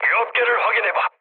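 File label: Korean damaged oil mood low, speech; War Thunder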